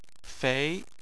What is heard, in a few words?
"fei2", Chinese